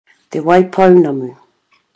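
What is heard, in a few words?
"te wai pounamu", Māori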